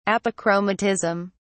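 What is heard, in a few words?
"apochromatism", British English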